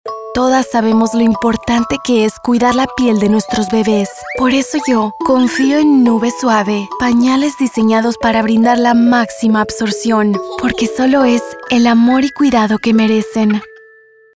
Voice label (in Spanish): SPA LATAM Panales Pacifico Sereno